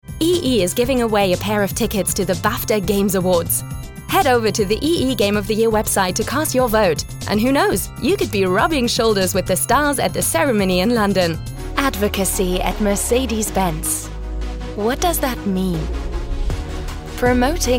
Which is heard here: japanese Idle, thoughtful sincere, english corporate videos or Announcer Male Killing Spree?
english corporate videos